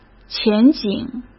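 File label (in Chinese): "前景", Mandarin Chinese; hsk; chinese-flashcards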